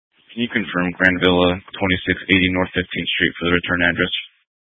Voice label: Fire Department Dispatch, scanner; Grand Junction